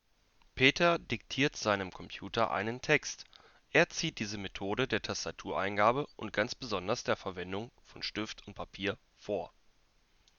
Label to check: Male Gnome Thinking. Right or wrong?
Wrong